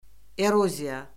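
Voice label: "eroziya", Azerbaijani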